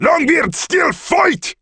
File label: dwarf Hero taunt confirm